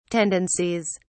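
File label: "tendencies", British English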